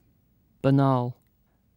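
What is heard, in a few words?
"banal", British English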